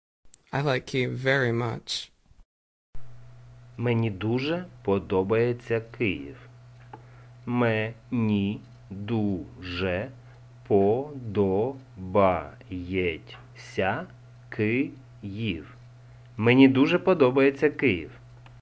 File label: UKR I like Kyiv very much